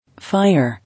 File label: "Fire", English